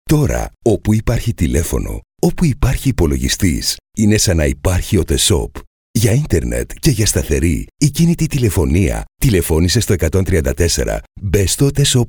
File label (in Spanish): locutor griego greek voiceover; Male Greek voiceover